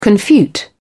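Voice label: "confute", British English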